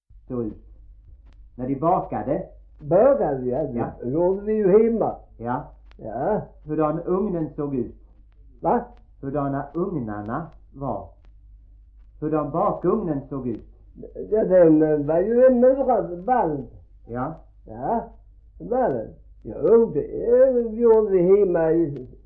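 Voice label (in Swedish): Gråmanstorp Skåne, dialekter; Folkminnen; Svenska sagor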